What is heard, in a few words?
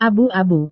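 "abu-abu", Indonesian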